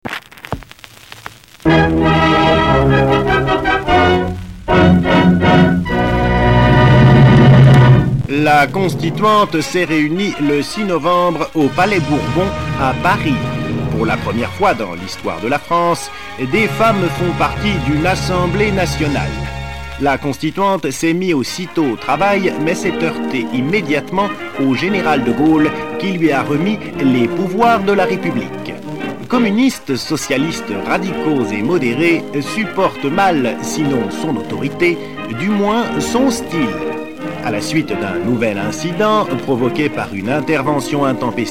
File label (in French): Docu Fiction; La Constituante 1946